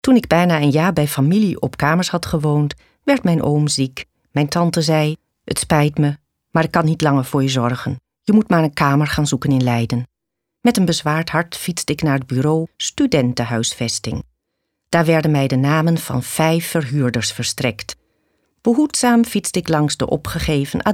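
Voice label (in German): Dutch, Kommentar, Freundlich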